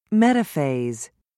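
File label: "metaphase", English, British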